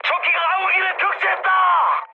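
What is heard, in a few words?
Korean aircraft destroyed mood high, speech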